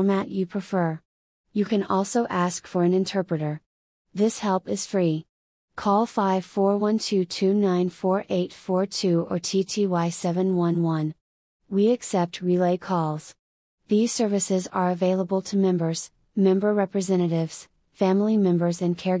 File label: english